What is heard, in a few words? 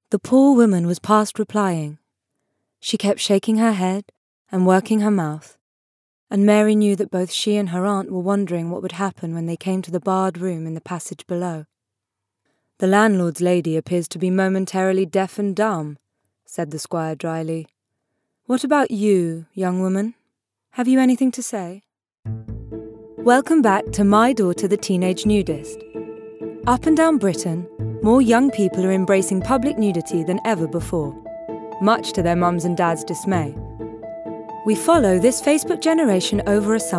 Nar Doc; MEGAMIX